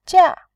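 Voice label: "jia", Mandarin, fourth tone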